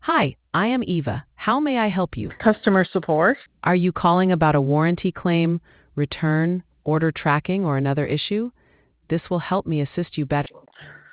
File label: conversation